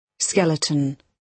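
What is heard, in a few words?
"skeleton", British English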